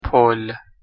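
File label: "pol", Persian, male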